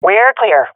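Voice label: sees area clear, swat female; vo; Counter Strike Model Pack; CS:GO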